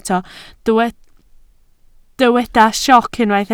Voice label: "sioc", Welsh

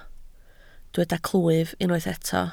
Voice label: "clwyf", Welsh

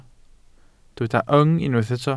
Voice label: "yng", Welsh

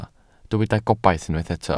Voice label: "gobaith", Welsh

